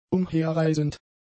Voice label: "umherreisend", German; adjectives

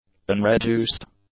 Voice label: "unreduced", english; adjectives

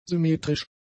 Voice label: "symmetrisch", German; adjectives

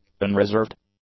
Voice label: "unreserved", english; adjectives

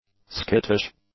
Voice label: "skittish", English; adjectives

